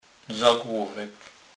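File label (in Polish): "zaglowek", Kurpie dialect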